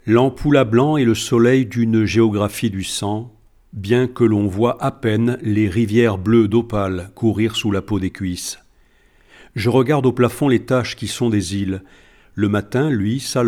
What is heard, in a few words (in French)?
lecture à voix haute; vie d eros samboko; ecriture